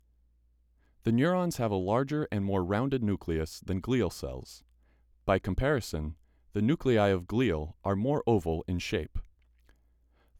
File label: voiceover